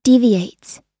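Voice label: "deviates", American English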